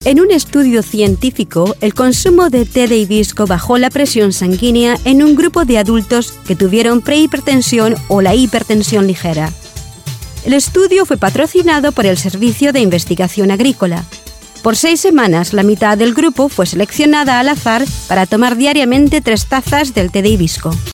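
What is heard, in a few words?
Latin American Spanish, Documentary; GoLocalise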